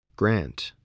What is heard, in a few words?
"grant", spelling